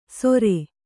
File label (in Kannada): "ಸೊರೆ", kannada